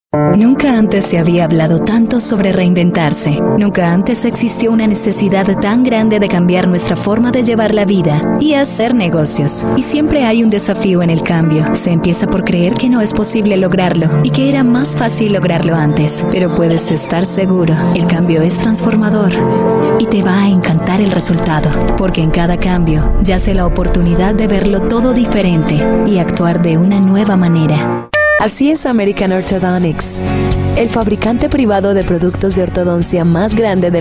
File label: spanish voice over female commercial